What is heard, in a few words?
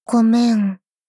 sad sorry